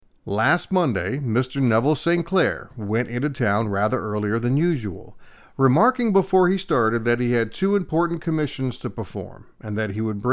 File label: Narration; Sherlock